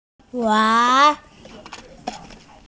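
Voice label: "fishing net", Mandarin, hearing-impaired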